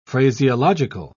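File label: "phraseological", British English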